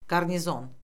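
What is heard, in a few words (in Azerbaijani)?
"qarnizon", Azerbaijani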